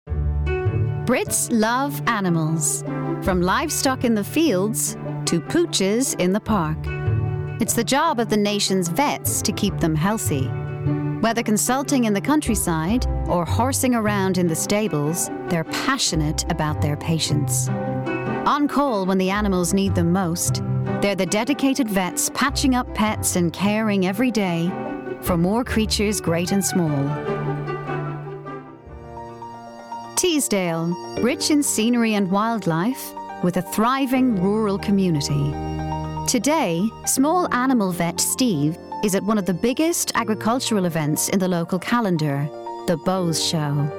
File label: Documentary Reel